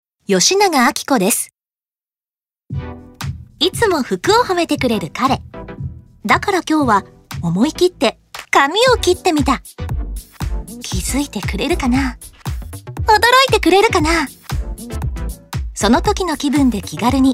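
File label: commercial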